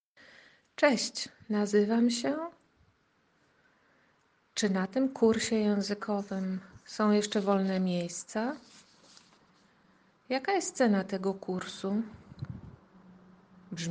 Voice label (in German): Polnisch alle Saetze